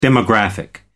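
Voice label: "demographic", British English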